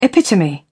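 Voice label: "epitome", British English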